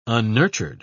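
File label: "unnurtured", British English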